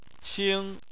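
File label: "qing", Chinese, tone 1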